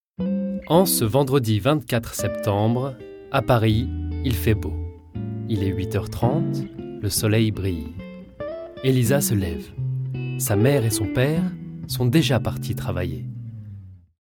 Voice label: podcast, French, male

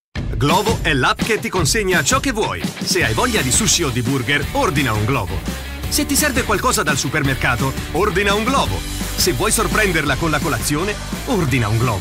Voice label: commercial, italian, male

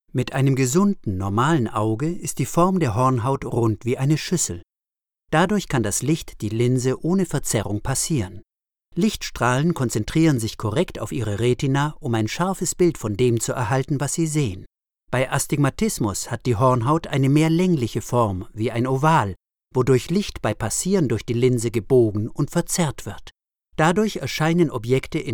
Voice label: E-learning, GERMAN MALE

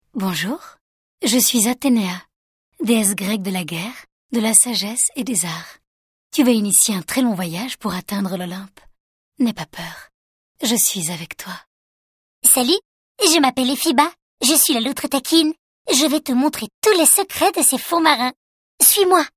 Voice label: video game, French, female

